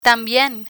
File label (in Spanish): "tambien", Spanish